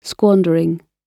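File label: "squandering", British English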